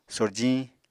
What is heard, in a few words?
"sorjiñ", Apatani